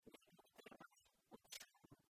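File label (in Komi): ме ог тэрмась ог сёрмы, Komi, female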